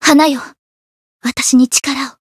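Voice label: variable chant, Japanese; sophia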